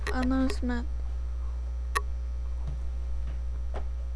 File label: announcement